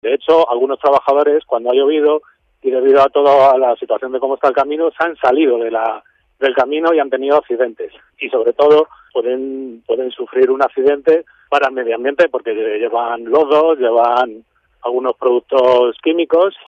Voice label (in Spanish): noticias